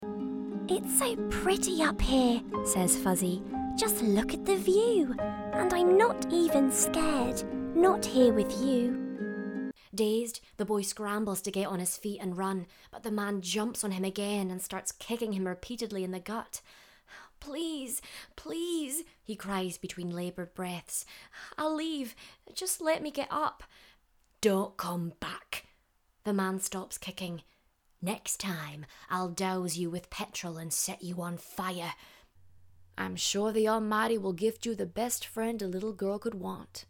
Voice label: Accent; Warm, versatile and engaging.; Belfast/London based actress

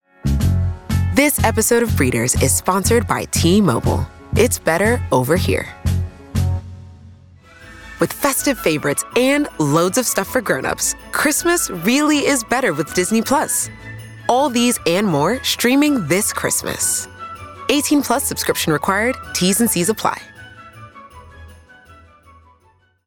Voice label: Promo; A confident, fresh and honest US voice with great clarity and warmth.

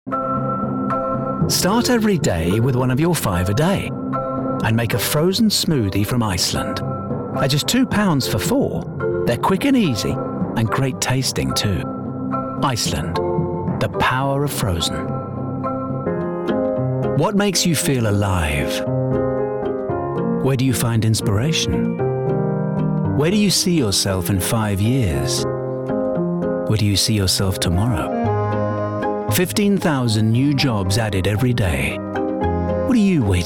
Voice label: Commercial

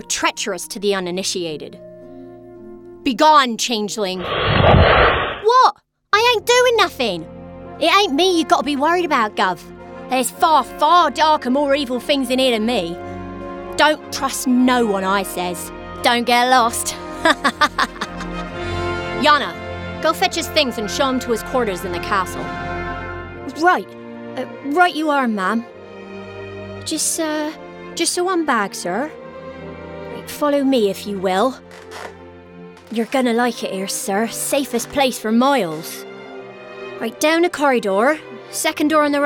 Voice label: Accents and Characters With Music

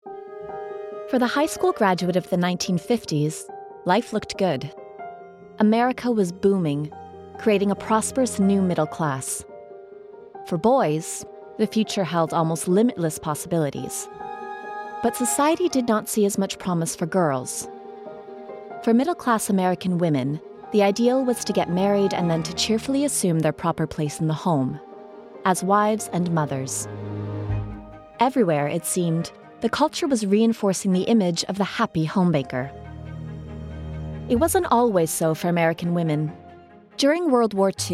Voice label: Documentary

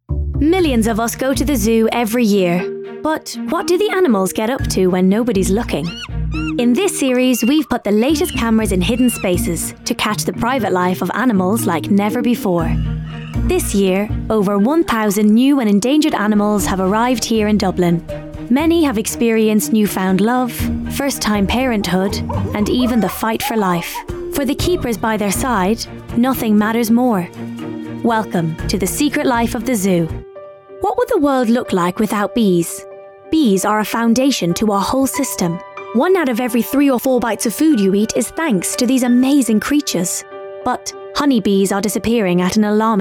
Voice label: Narrative

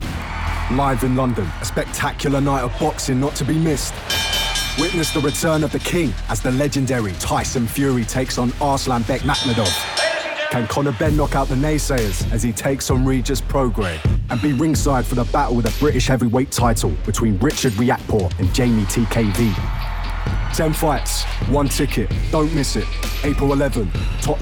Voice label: Promo

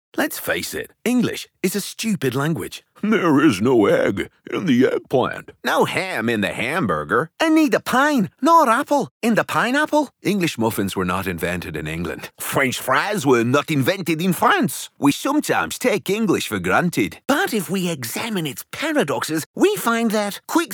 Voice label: Accent Reel; A voice for commercials, promos, animations and everything in between

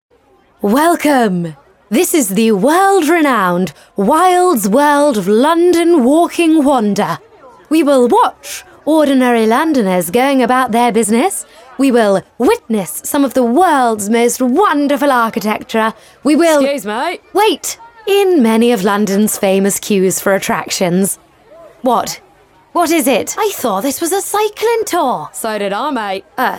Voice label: Accent reel

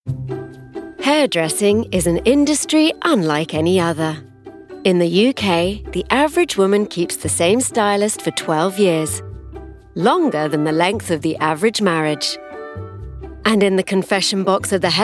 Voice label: Documentary

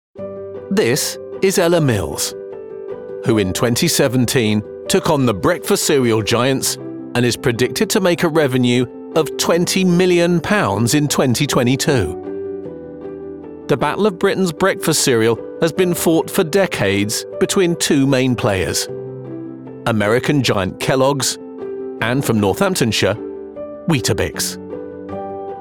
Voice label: Documentary; Recognisable, warm and engaging voice. Well known TV Broadcaster.

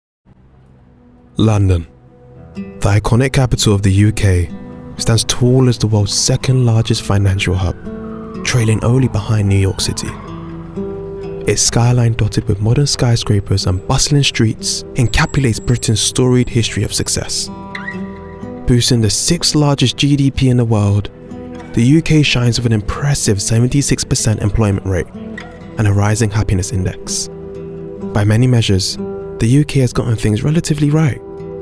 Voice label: Documentary

